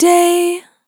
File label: "Short Day", vocal samples; Words